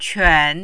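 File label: "quan", Mandarin, third tone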